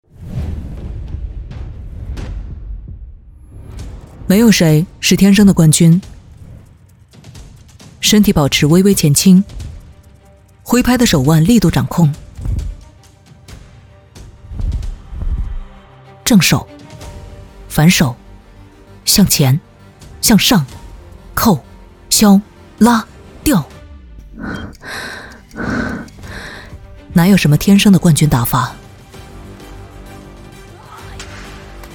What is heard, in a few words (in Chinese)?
女 TVC; 女4-TVC-冠军